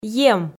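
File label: "ем", Komi, female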